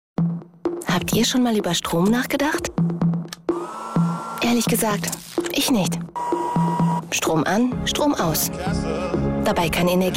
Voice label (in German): tv spot; rwe